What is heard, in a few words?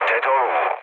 aircraft destroyed, speech cze, mood low